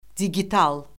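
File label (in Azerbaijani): "digital", Azerbaijani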